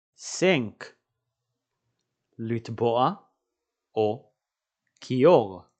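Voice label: "sink" English, "לטבוע כיור" Hebrew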